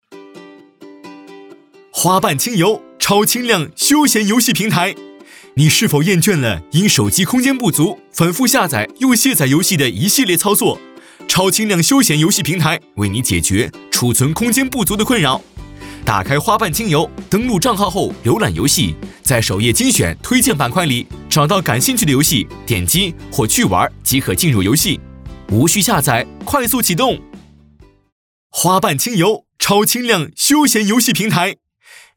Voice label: 轻松欢快; 花瓣轻游

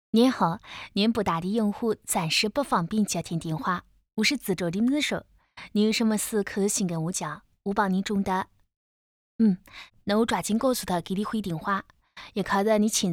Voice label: 合肥方言

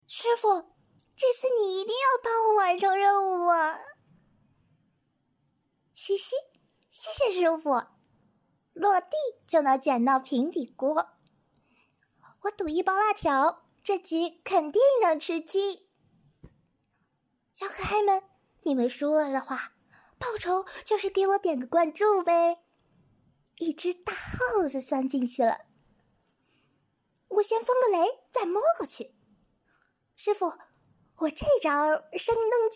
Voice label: 萝莉音游戏解说试音

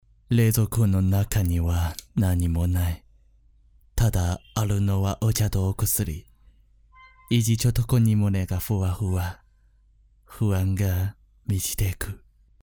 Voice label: 日语

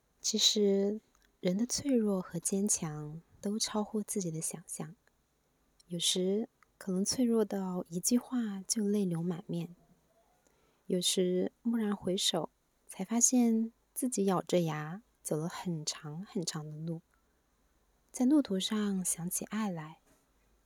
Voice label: 抒情女声

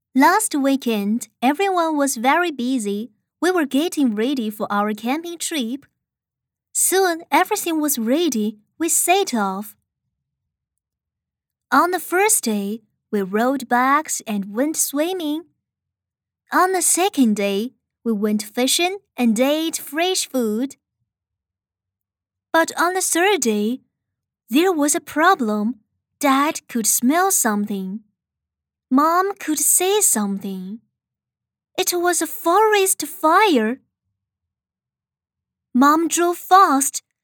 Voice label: 学生绘本 英

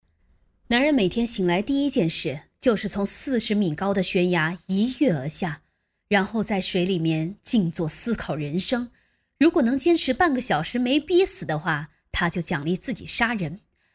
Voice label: 悬疑解说元气少女女

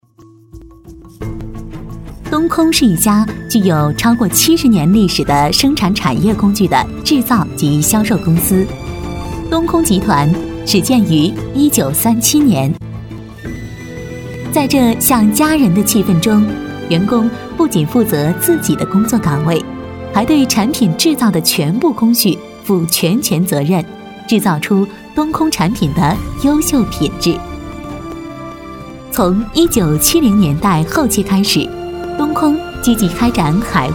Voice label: 女音课件多媒体; 东空集团

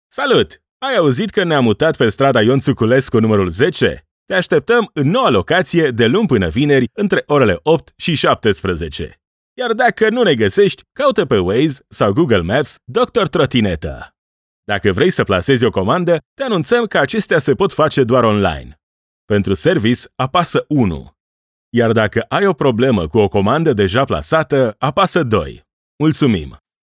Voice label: 罗马尼亚语男样音

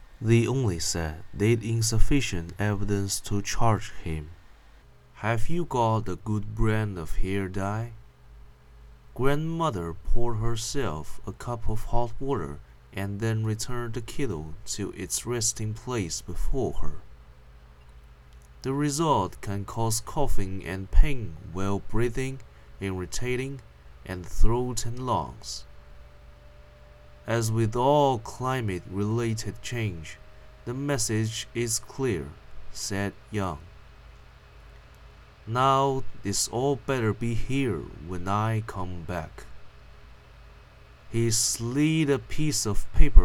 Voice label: 英语

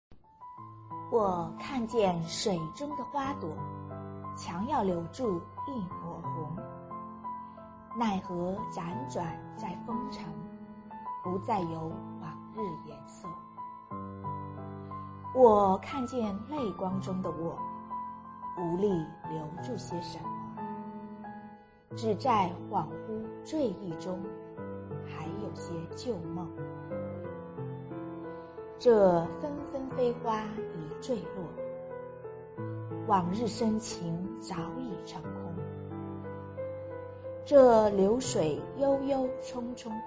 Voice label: 歌词 配音

